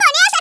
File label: what the, Hispanic; Worms Armageddon